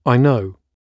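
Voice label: "i know", British English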